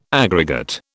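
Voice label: "aggregate", British English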